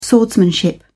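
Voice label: "swordsmanship", British English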